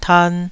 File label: "tan2", Taiwanese